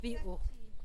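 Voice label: "biuu", Teotitlán del Valle Zapotec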